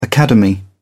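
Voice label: "academy", English, British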